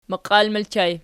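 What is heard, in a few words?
"maqial" ergative plural, Archi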